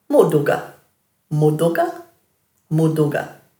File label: "mudhuga-", Dhurga